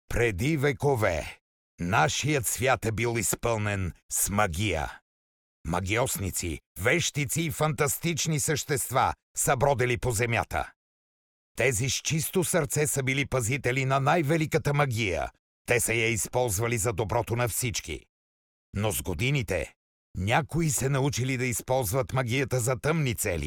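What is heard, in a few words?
bulgarian male narration, voice demos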